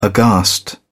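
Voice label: "aghast", British English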